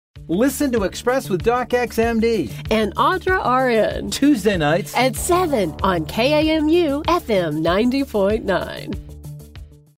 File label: AUDIO SPOT